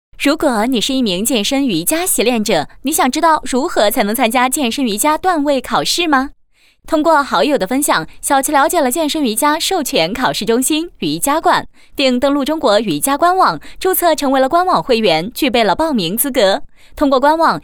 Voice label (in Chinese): 女; 飞碟说; 健身瑜伽段位考试